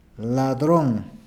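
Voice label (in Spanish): "ladron", Spanish, Peru, Cuzco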